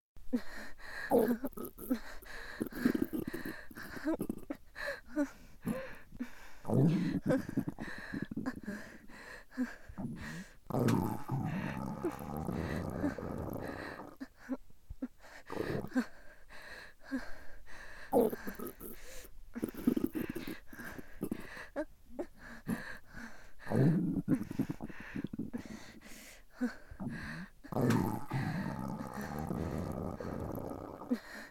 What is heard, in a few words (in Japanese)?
腹痛に耐える OL（初期） 効果音入り